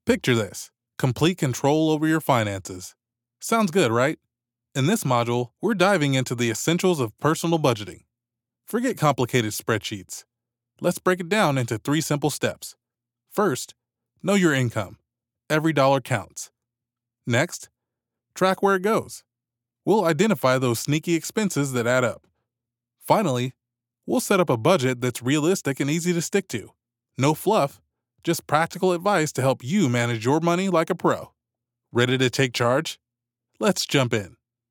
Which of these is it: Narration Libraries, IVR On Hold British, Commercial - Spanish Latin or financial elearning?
financial elearning